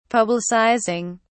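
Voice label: "publicizing", British English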